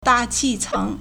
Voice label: "da4 qi4 ceng2", Chinese